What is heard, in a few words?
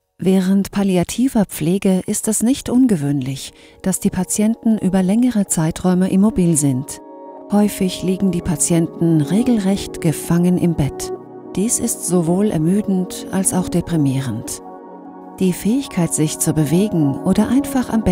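German, female, German Explainer medical; Schampi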